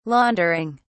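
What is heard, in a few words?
"laundering", British English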